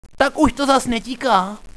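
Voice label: bomb defused, radio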